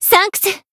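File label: Voice healed, Japanese; hisumena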